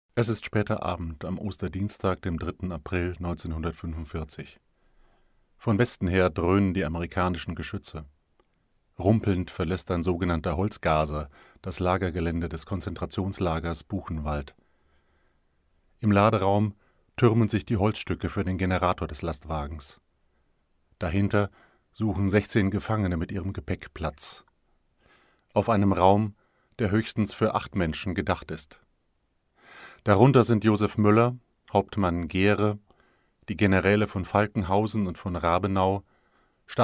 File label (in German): erzaehltext; bonhoeffer